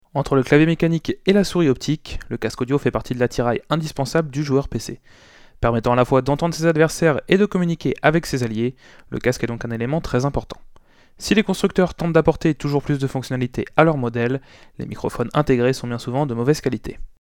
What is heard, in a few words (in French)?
test son modmic wireless cardio